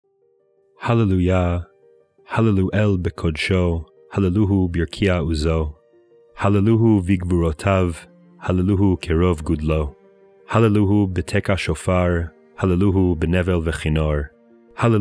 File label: psalm reading hebrew